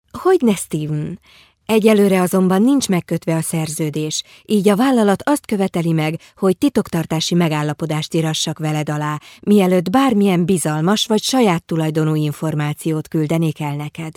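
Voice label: female Hungarian IVR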